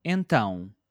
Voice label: "então", Portuguese